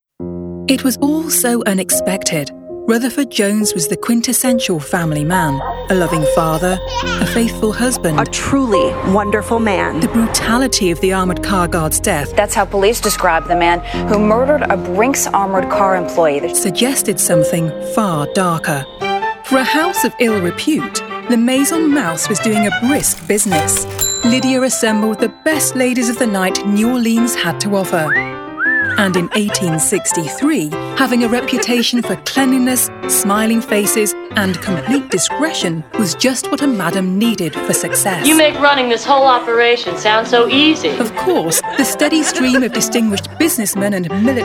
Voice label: english british documentaries

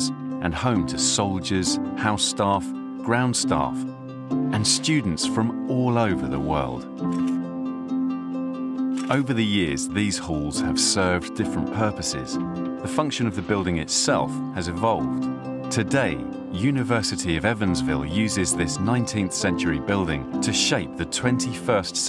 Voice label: english british documentaries

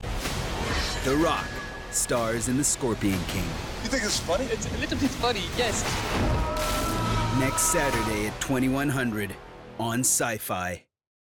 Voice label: english british movie trailers

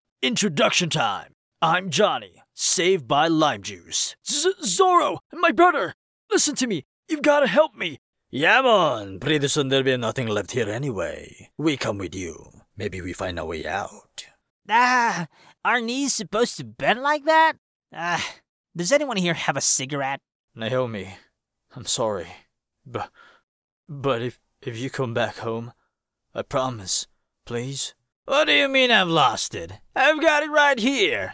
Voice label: english video games

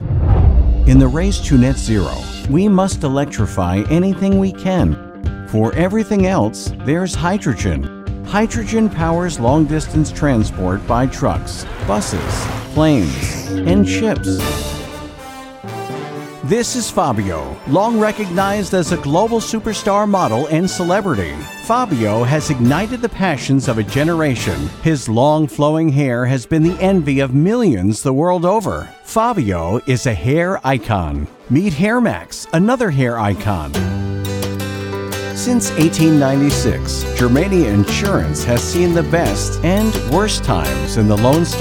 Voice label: english american commercial

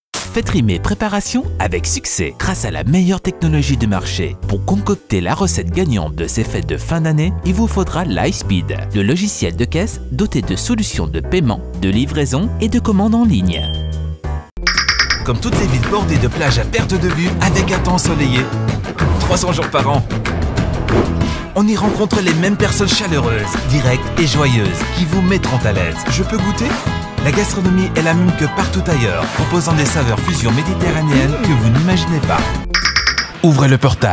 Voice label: french commercial

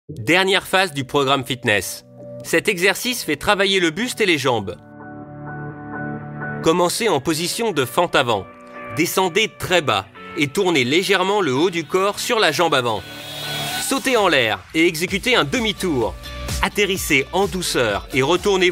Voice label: french e-learning

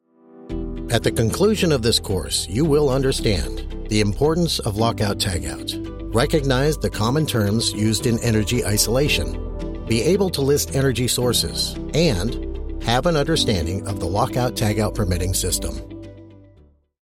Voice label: english american e-learning

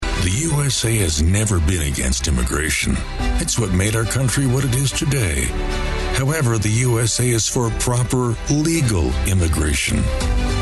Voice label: english american political ads

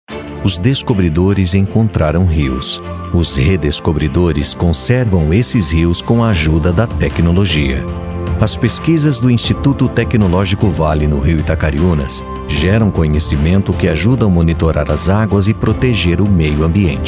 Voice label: portuguese brazilian corporate videos

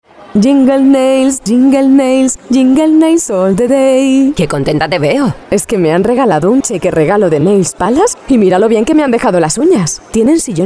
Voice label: spanish promos

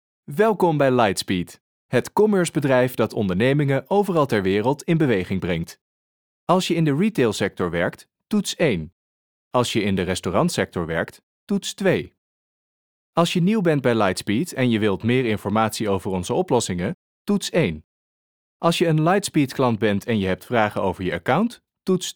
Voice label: Dutch IVR

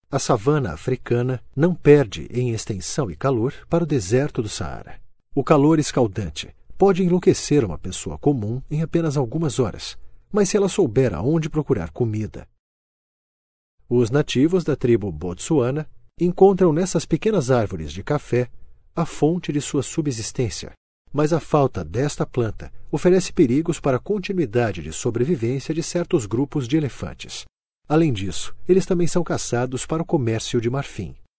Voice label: portuguese brazilian documentaries

